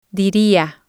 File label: "diria", spanish